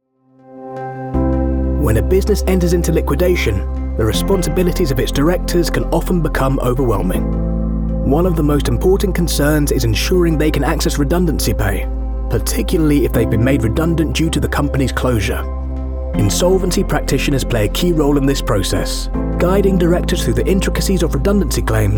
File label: corporate voice